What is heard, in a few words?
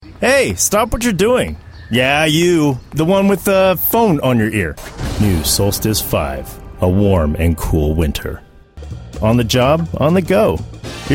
male voice